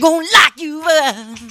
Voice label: spy jeers; spy jackson